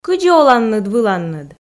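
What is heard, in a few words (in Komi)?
кыдзи оланныд-выланныд, Komi, female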